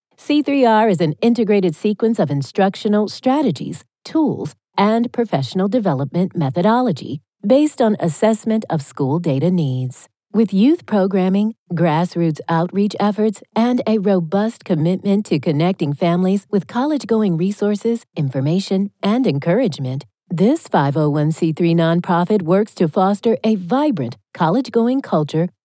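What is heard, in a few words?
US training, voice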